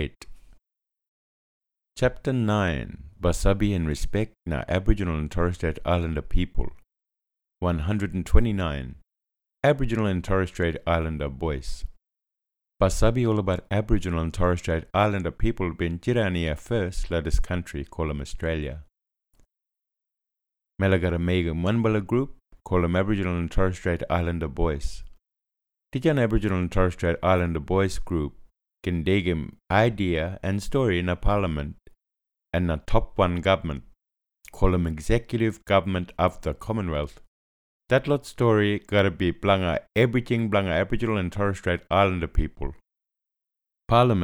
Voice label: Referendum Booklet, East Side Kriol; Constitution Alternation